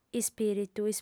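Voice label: "ispiritu", female; Ideale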